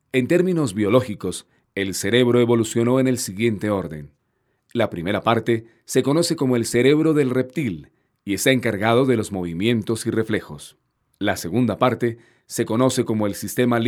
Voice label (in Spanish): voz narrativa